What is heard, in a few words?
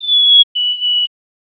Sound male